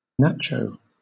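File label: "nacho", English, British accent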